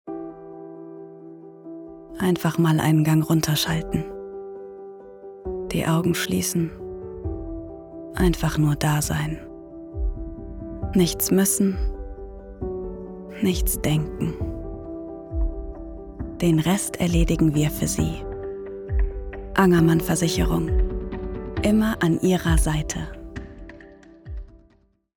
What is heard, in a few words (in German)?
WERBUNG VERSICHERUNG